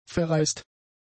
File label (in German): "verreist", german; adjectives